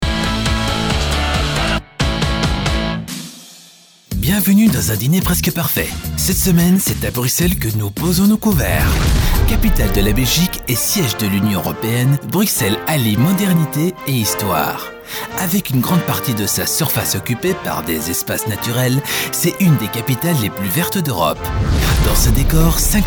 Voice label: french tv shows